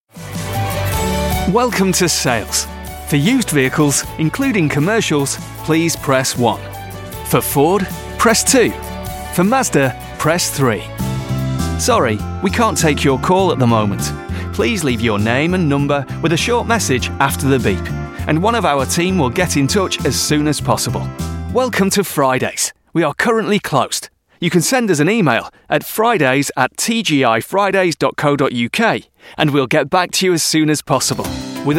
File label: on hold